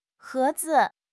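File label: "he2 zi5", Mandarin Chinese; HSK4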